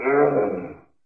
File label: jibjab MON STAR, Lo-Fi Jibber Jabber